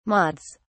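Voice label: "mods", British English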